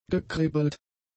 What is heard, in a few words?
"gekribbelt", German; adjectives